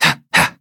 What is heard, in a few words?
battle, en; cookie run